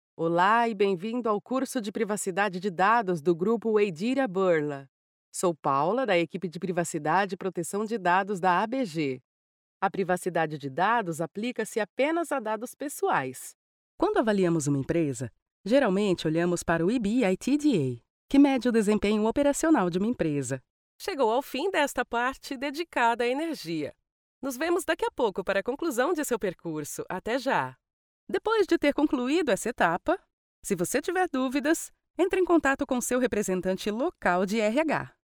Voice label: female brazilian portuguese e learning